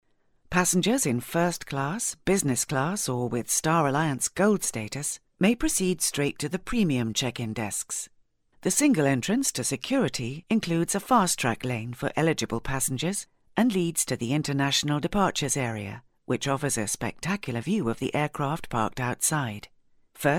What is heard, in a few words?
corporate style; Star Alliance